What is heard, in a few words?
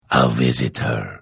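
Hello, Vo; Morrowind GOTY GOG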